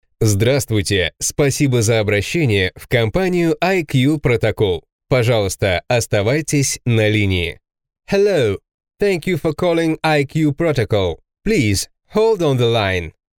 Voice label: ivr